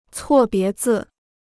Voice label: "错别字", Chinese